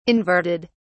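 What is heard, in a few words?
"inverted", British English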